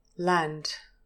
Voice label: "land", English pronunciation, trap vowel